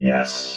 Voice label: "yes", robot